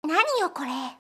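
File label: "naniyokore", Japanese, komaru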